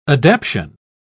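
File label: "adaption", English, British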